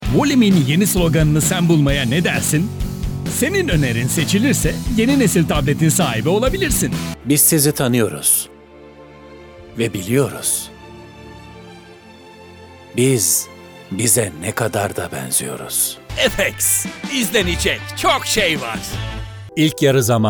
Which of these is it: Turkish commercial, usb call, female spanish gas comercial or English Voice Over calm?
Turkish commercial